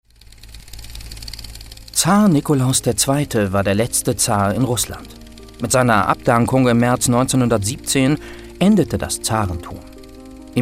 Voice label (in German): Doku; Russland